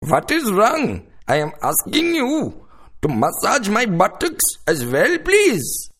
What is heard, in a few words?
Sms With Accent